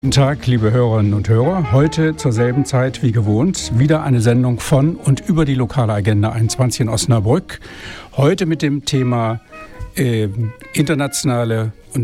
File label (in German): ansage; os radio